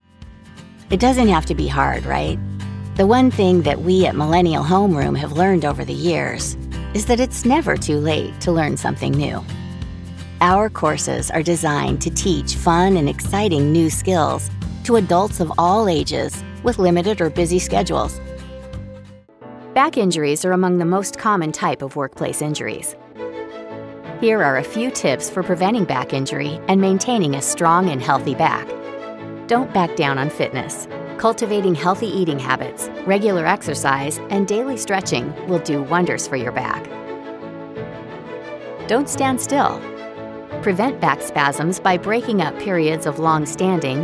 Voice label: english american e-learning

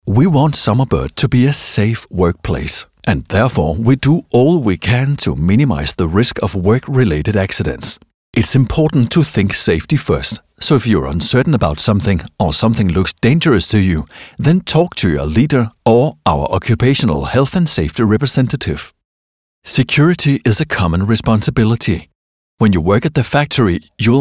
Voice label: english corporate videos

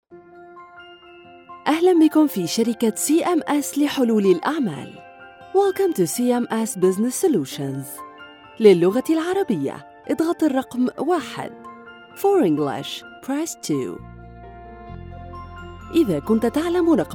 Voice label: Arabic ivr

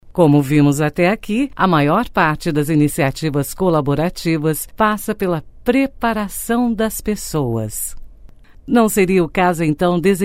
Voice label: portuguese podcasts

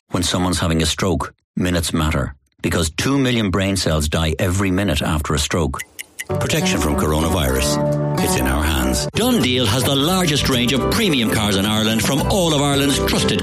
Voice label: english irish commercial; Ireland

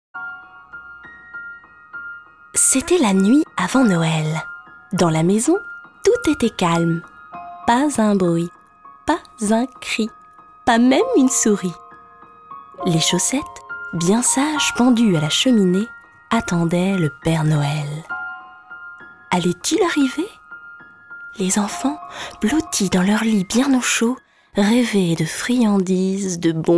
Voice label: french audiobooks

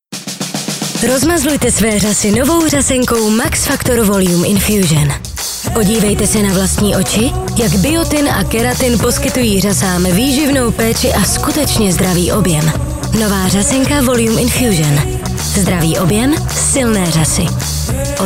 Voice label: czech commercial

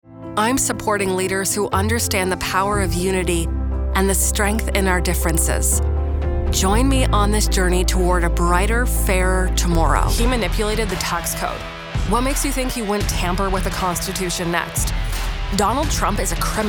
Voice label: english canadian political ads; professional Canadian voice actor, with a North American neutra

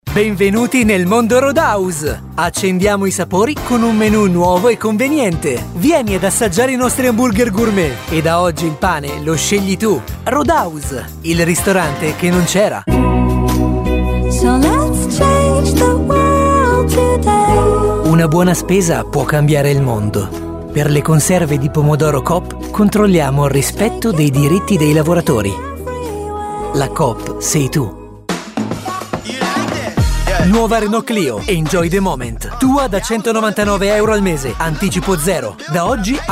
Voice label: italian commercial

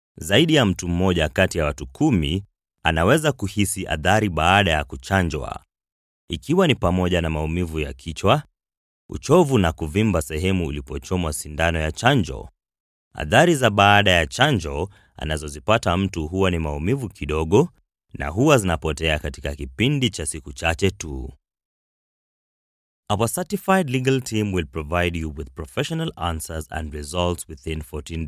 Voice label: english african commercial

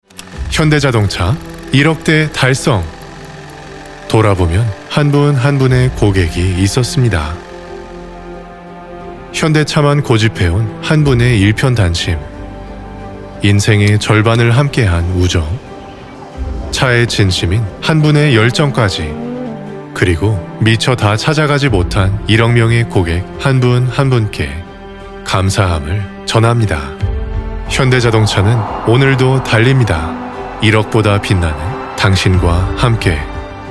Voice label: korean documentaries

